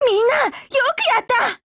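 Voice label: win, voice, Japanese; GF Alarm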